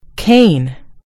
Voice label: "cane", en GB; gcompris